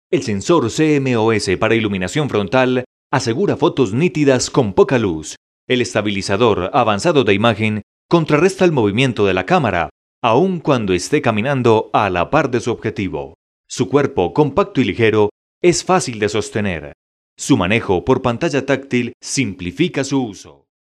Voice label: locutor colombiano colombian voiceover